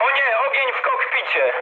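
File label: on fire, mood med, Polish speech; War Thunder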